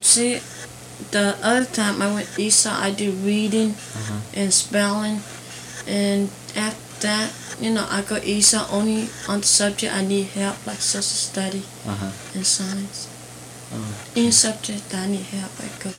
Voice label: Conversation with 12 year old male Virginia, american english dialect